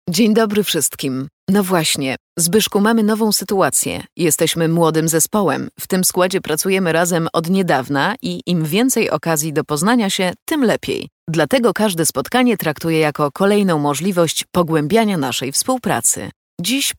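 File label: Polish corp narration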